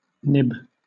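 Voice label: "nib", British English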